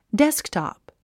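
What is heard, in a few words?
"desktop", English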